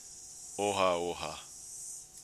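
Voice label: "ohaoha", Māori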